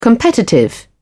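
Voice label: "competitive", British English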